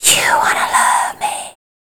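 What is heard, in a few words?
vocal female, Dance Vocals, spoken words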